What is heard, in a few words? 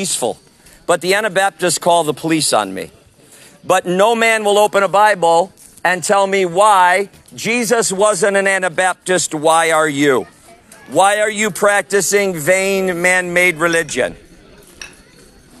preaching while they play shuffle board